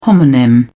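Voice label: "homonym", British English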